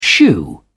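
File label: "shoe", British English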